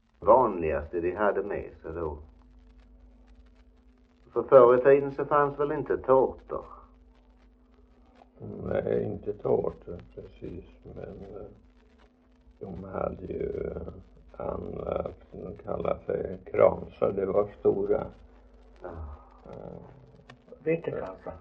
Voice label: Idala, dialekter; HALL

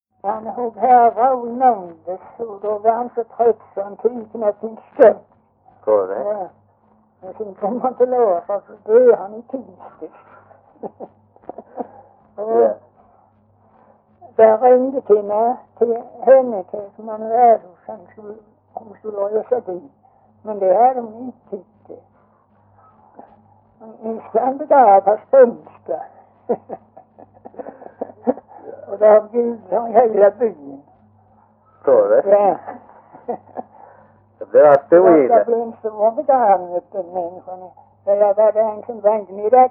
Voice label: Skånes Fagerhult SK, dialekter; Folkminnen

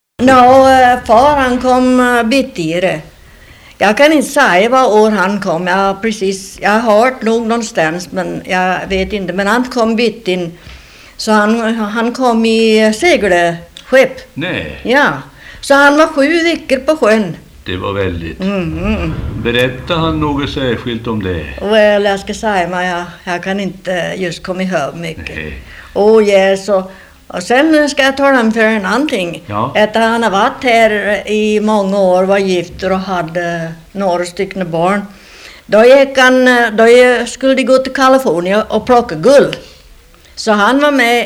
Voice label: dialekter

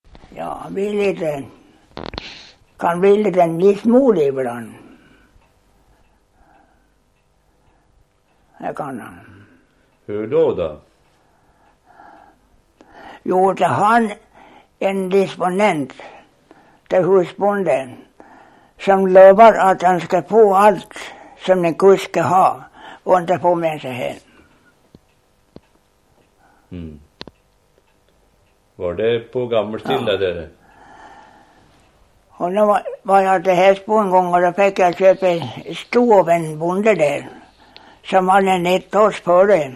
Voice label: Torsåker, dialekter; Folkminnen; Svenska sagor

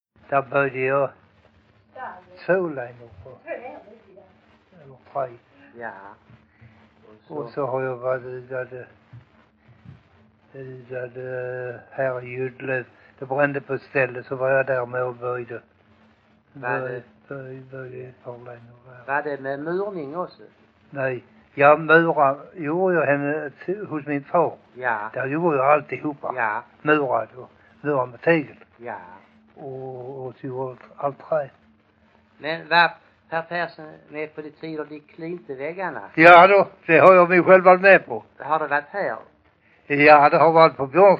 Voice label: Gödelöv, dialekter; Folkminnen

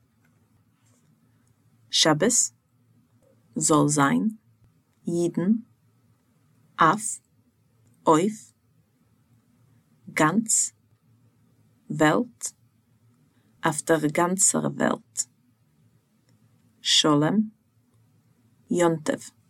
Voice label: Ordlista, Jiddish

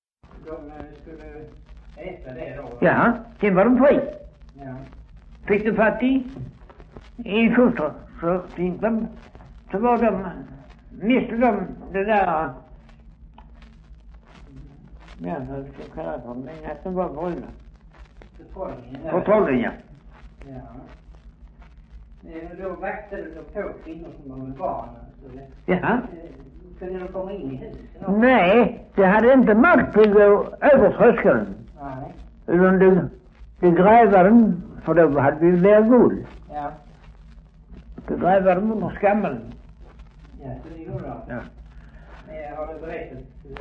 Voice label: Östra Ingelstad SK, dialekter; Folkminnen

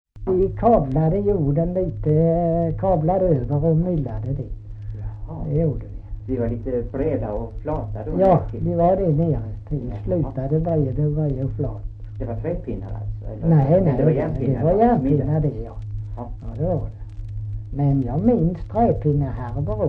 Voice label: Kristianopel BLEK, dialekter; Folkminnen

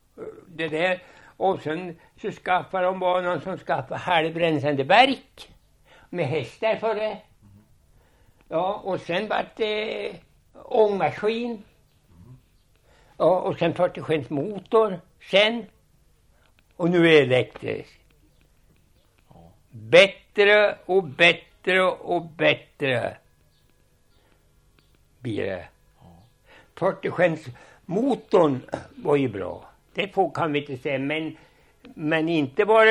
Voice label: Alunda, dialekter; Svenska sagor; Folkminnen

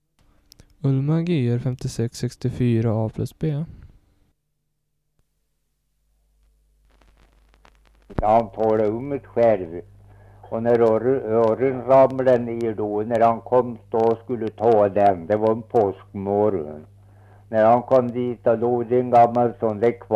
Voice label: Viker, dialekter; Svenska sagor; VSTM; Folkminnen

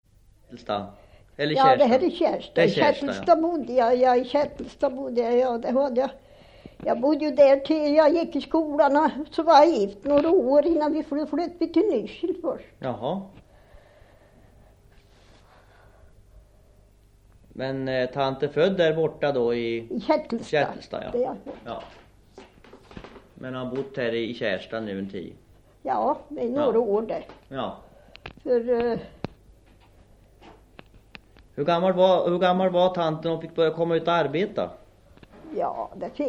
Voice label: Vårdnäs, dialekter; Folkminnen

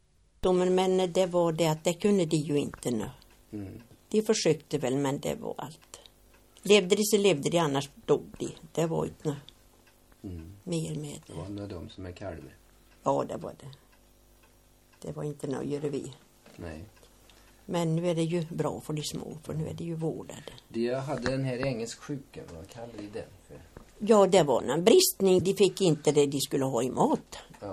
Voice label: Värmskog, dialekter; Svenska sagor; Folkminnen

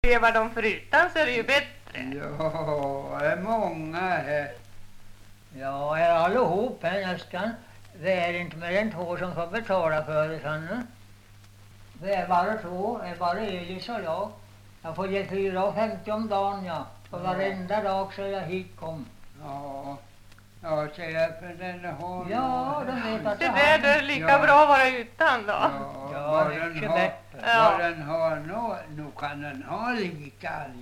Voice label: dialekter; Folkminnen

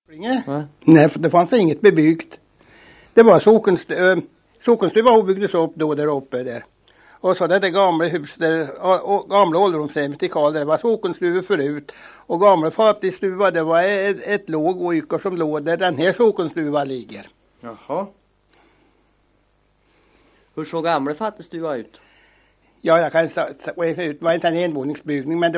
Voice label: Hycklinge, dialekter; Folkminnen

